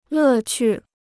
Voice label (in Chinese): "乐趣", Chinese